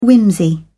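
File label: "whimsy", British English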